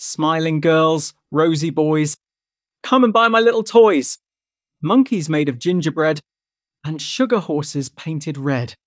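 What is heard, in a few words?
male British, classic rhymes; Smiling Girls Rosy Boys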